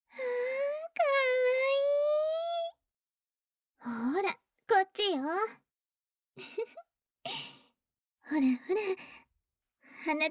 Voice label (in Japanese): スライム音無し, ASMR